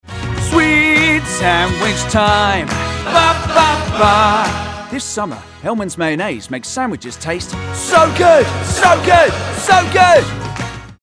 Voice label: male English commercial; Hellmann's

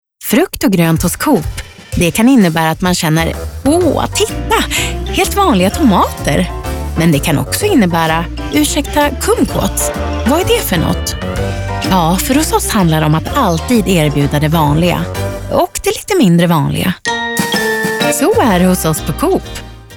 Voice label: female swedish radio commercial

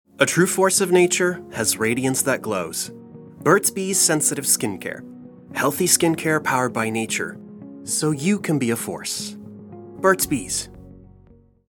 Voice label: male english canadian; canadian accent

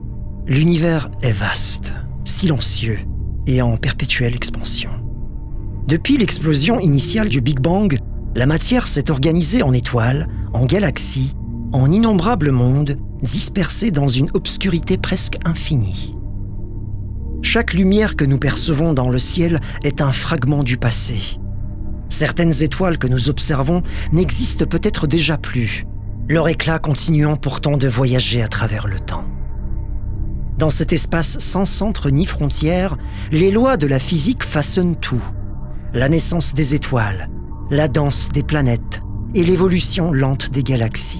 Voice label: male french documentary; Devine Voices

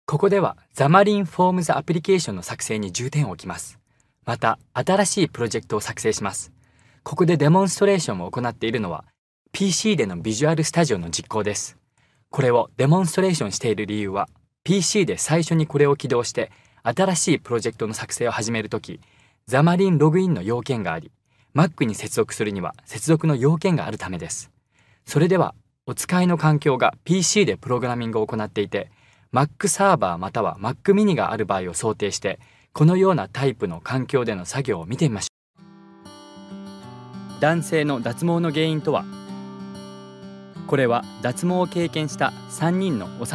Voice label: male japanese compilation

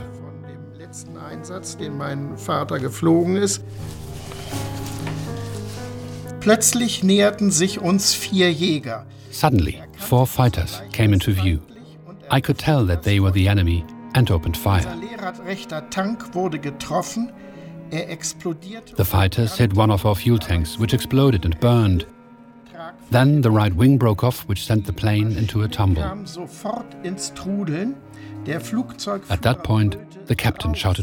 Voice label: male german english with slight german accent; devine voices

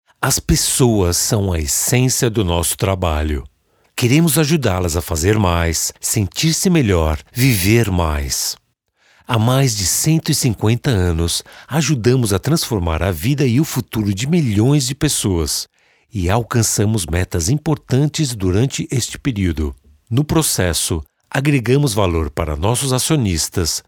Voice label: male brazilian portuguese promo

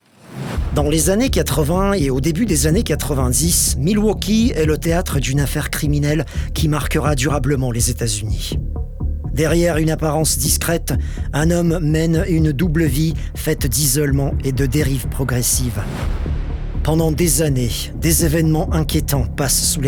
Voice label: male french and russian french documentary; Devine Voices

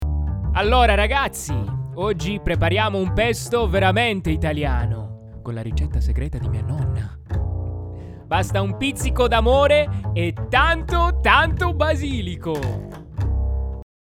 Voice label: male italian pesto commercial; barilla

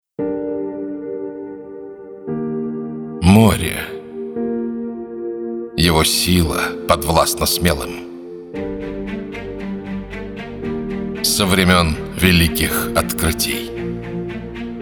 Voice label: male, Russian, commercial

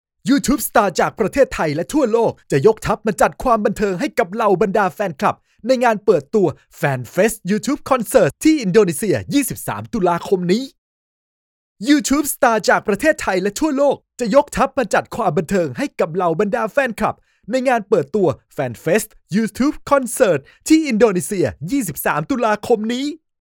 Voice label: male thai youtube commercial; devine voices

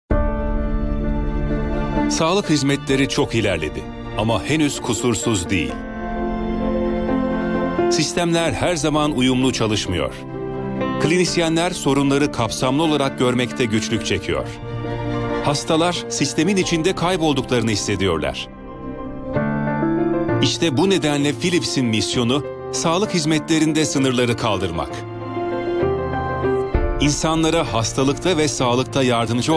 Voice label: male, Turkish, corporate; philips

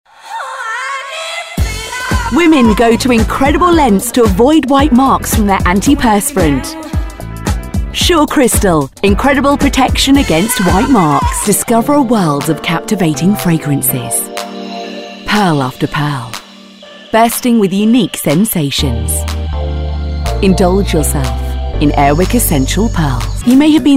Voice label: female English commercial compilation

